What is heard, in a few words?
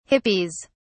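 "hippies", British English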